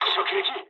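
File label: bomb success mood low, speech japanese; War Thunder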